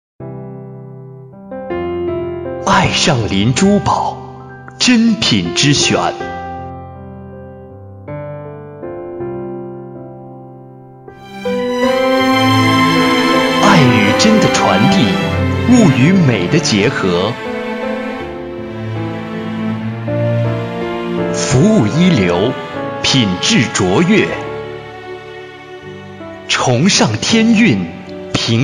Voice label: 男 广告 珠宝; 艾尚璘珠宝

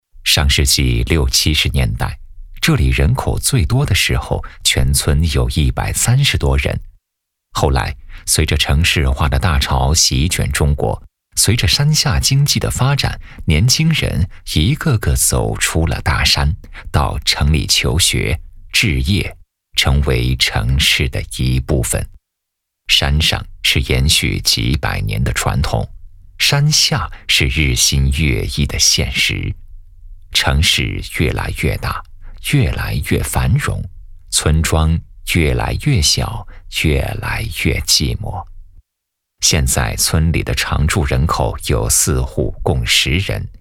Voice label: 男, 纪录片 讲述类; 守望

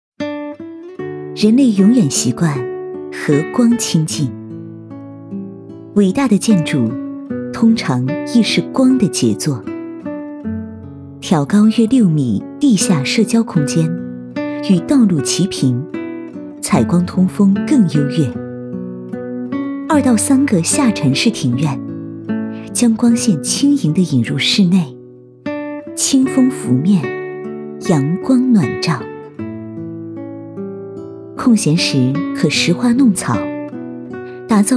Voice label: 专题 春风江南 成熟温柔

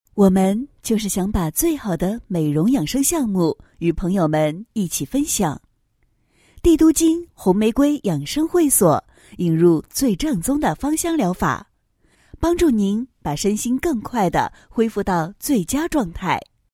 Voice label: 女 宣传广告; 红玫瑰美容养生宣传

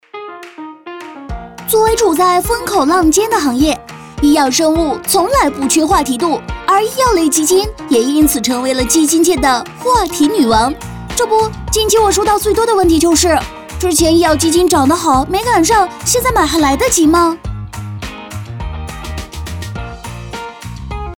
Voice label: 女 基金; 飞碟-基金

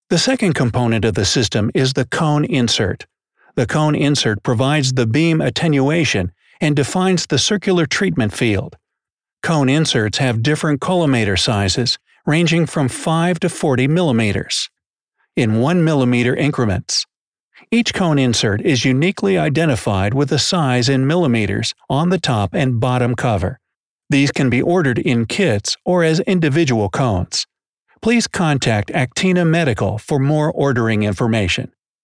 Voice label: 外籍配音美式男 公司介绍 磁性 大气

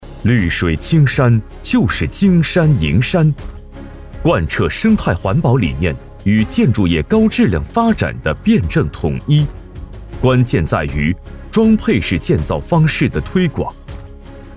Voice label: 男, 大气企宣; 平煤杭萧建设集团

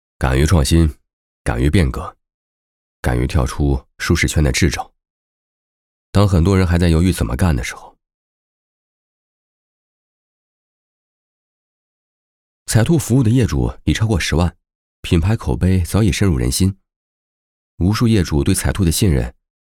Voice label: 经理 主管角色人物讲话

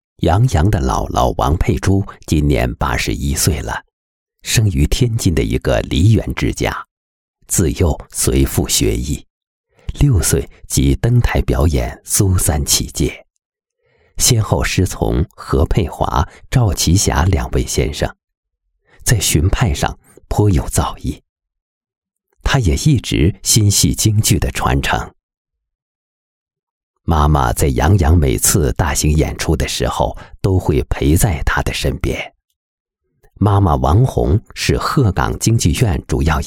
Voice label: 男; 京剧世家样

